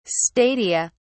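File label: "stadia", British English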